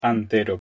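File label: "h2entero", Proto-Indo-European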